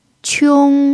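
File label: "qiong" first tone, chinese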